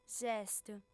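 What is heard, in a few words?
"s'est", female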